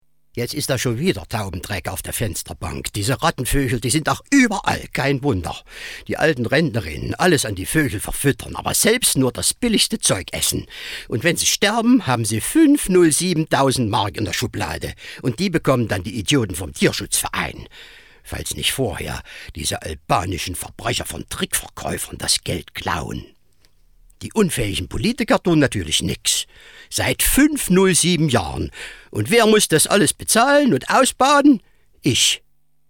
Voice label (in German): Dialekt Saechsisch